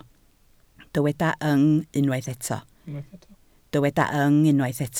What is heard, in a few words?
"yng", Welsh